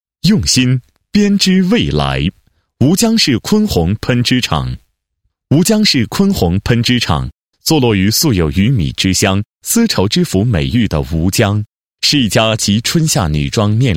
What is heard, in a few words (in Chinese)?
男 专题片解说词; 坤鸿喷织厂